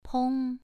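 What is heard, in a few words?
"peng", Mandarin Chinese syllable, second tone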